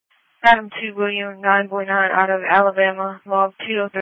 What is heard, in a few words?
Police Patrol 1 (Dispatch); New Bern Police Patrol 1 (Dispatch) (TG 200)